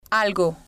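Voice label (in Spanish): "algo", spanish, female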